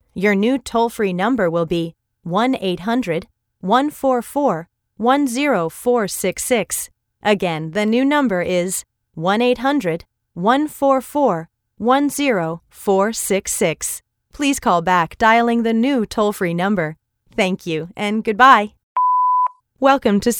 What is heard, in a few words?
ivr